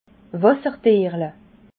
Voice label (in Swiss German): "wassertierle", alsace